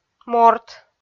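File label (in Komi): "морт", Komi, female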